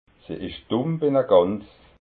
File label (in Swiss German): "dumm", alsace